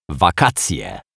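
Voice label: "wakacje", Polish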